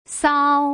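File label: "sao", Mandarin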